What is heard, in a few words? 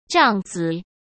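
"帐子", Mandarin Chinese; chinese-flashcards; hsk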